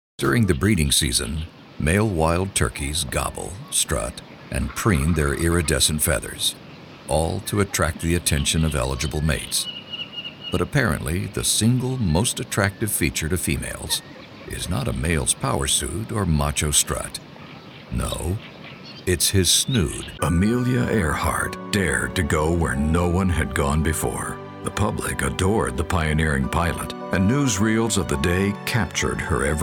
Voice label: Narration English; Voiceovers; Voice Talent